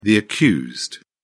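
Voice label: "accused", British English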